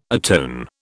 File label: "atone", British